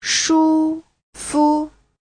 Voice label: "shu1fu5", Chinese; Giáo trình Hán ngữ